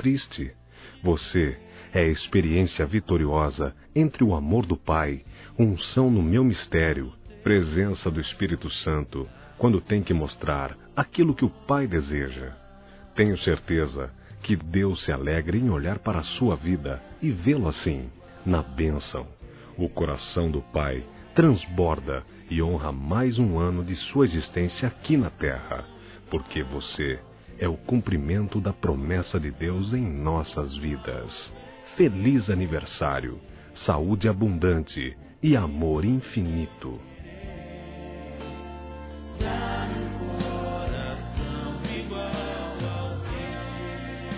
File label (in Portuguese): Pastor Evangélico Masculino; ANIV. Evangelico